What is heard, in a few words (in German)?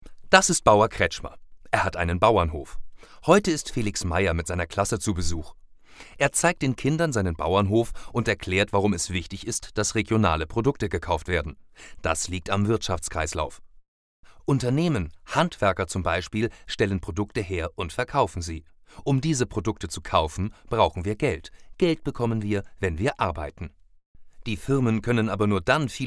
german explanation film